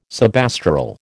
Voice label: "subastral", American